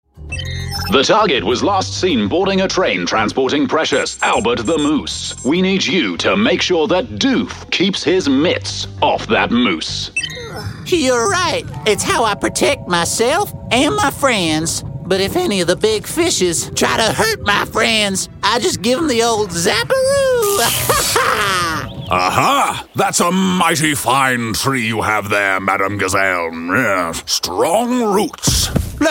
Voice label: British voiceover character, Neutral Modern British